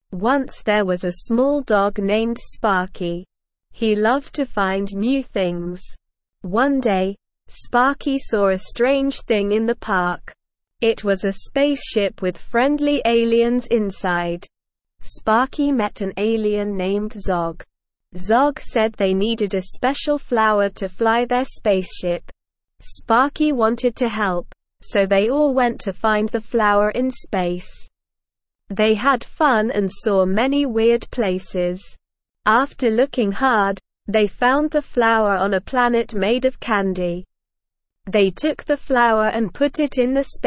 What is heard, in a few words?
ESL Short Stories for Kids Beginner SLOW Reading; Sparkys Space Adventure